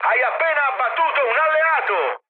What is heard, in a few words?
player killed ally mood high, speech it; War Thunder